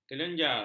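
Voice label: "kelenjar", Malay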